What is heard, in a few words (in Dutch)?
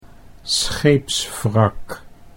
"scheepswrak", Dutch